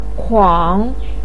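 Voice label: "kuang" third tone, chinese, voice pinyin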